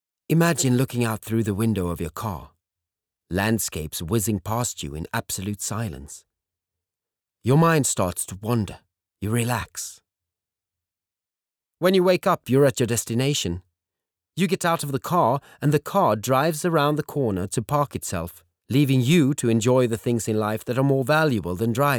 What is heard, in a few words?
English Car commercial